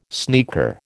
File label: "sneaker", American